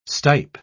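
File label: "stipe", English, British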